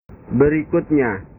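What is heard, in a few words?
"berikutnya", Indonesian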